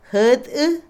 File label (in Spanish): hijastro, Otomí, mujer; Parentesco; Vocabulary; INALI